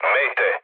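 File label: Serbian enemy detected mood low; War Thunder; speech srb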